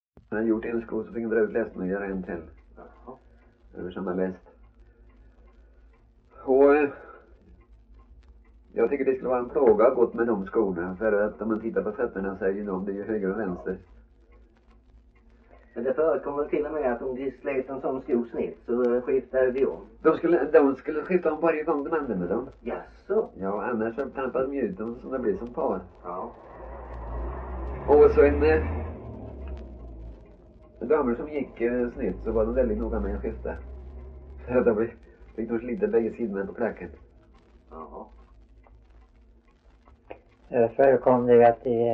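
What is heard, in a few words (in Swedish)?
Värö Halland, Svenska sagor dialekter; Folkminnen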